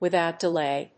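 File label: "without delay", English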